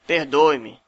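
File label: sorry, Brazilian Portuguese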